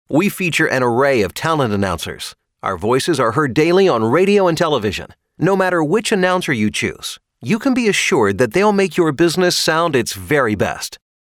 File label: Male